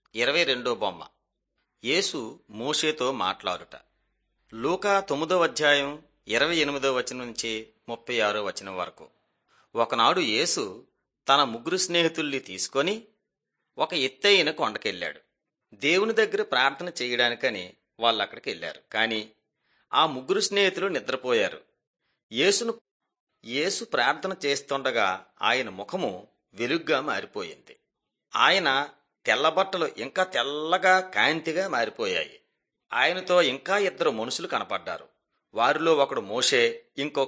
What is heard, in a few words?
telugu bangladesh; mighty men of god